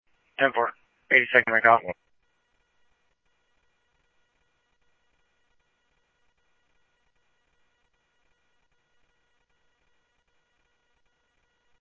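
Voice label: police radio dispatch, Scottsdale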